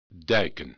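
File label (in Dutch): "duiken" Dutch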